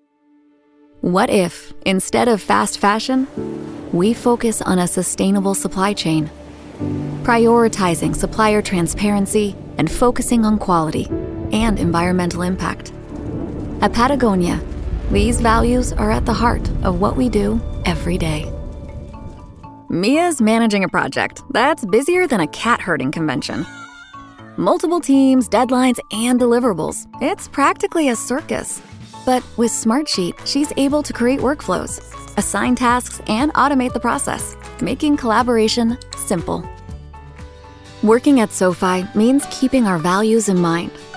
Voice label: english american narration